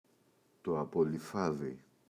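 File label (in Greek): "απολειφάδι", Greek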